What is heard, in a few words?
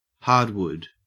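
"hardwood", Australian English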